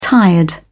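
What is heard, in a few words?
"tired", British English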